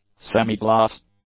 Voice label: "semigloss", English; adjectives

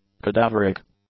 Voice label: "cadaveric", English; adjectives

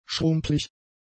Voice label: "schrumplig", German; adjectives

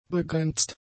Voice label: "begrenzt", German; adjectives

